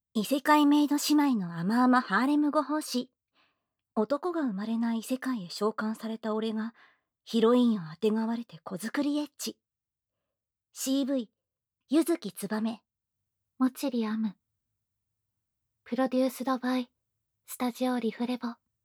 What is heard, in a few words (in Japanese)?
タイトルコール, ASMR